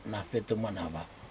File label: heart, Aneityum; nafetumenava